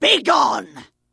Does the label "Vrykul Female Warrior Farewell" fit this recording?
Yes